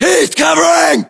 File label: US response covering, battlechatter, english; localized english